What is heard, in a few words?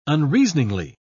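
"unreasoningly", British English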